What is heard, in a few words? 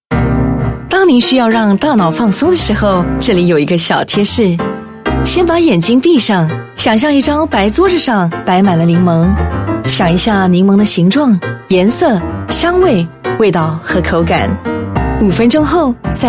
Mandarin; ThinkLemon